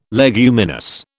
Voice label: "leguminous", British

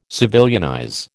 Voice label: "civilianize", American

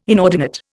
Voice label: "inordinate", Indian

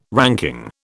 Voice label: "ranking", British

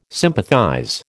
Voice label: "sympathize", American

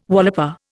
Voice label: "walloper", Indian

